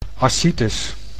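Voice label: "ascitis", Dutch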